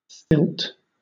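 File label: "stilt", British English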